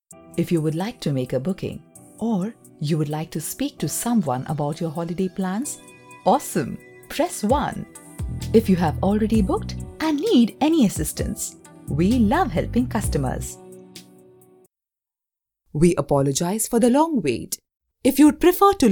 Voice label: IVR Sample